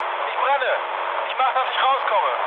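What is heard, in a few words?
German on fire mood low, speech; War Thunder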